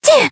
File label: Voice damaged; sophia